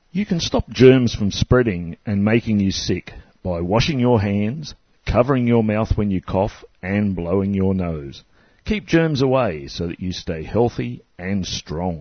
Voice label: hygiene english; Don't Share Your Germs; indigenous; talking posters